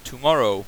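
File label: "tomorrow", English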